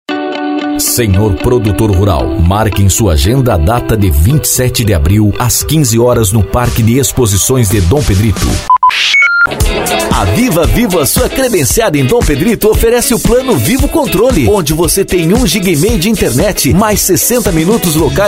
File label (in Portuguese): locutor